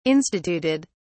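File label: "instituted", British English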